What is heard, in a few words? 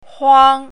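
"huang1", Chinese pinyin, first tone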